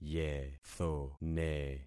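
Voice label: "yethone", concatenated syllables; Affixing experiment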